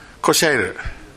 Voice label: "koshaeru", minamiyamagata